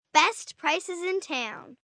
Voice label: Best Prices In Town, Sales Pitch, 1 girl, 10 yrs